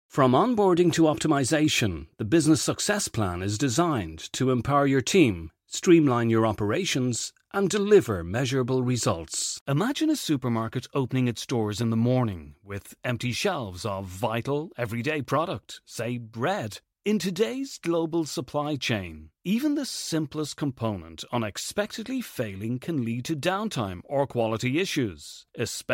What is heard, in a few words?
english irish explainer videos, irish voice actors; irlandés/inglés residente en Dublín; locutor profesional